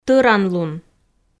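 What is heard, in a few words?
"тӧранлун", Komi, female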